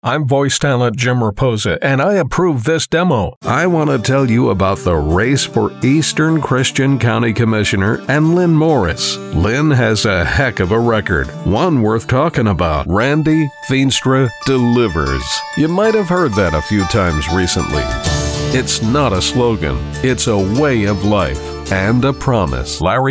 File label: Political VO